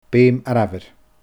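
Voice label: "beum arbhair", Scottish Gaelic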